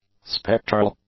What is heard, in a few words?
"spectral", english; adjectives